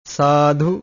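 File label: "saadhu", Pali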